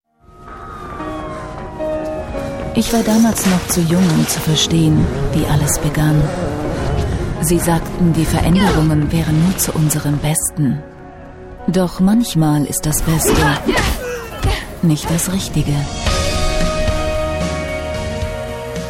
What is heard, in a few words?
German video games